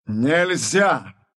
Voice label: deny, ru